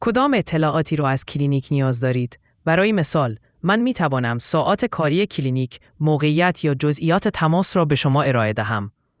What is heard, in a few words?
question persian